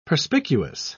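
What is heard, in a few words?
"perspicuous", British English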